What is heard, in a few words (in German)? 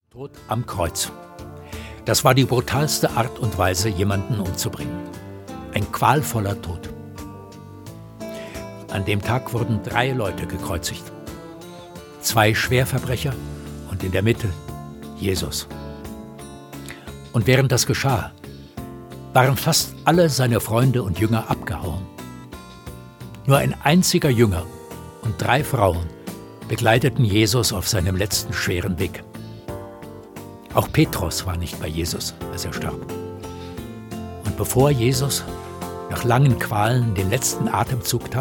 Hoerszene; Petrus; Hoerszene 10